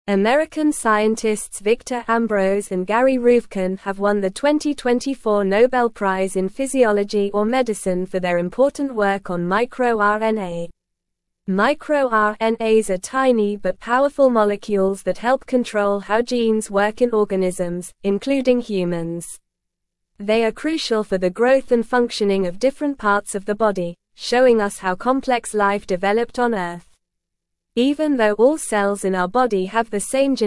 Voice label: English Newsroom Upper Intermediate NORMAL Reading